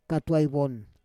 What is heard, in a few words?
"katuai von", Roon